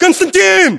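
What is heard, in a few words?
"konstantin", battlechatter, Russian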